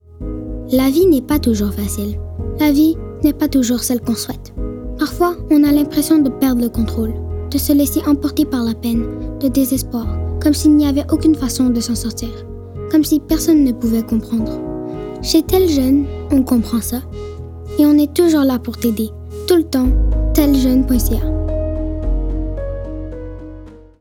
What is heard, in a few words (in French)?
vocal Tel Jeune; FR 2023 - Publicité Tel Jeune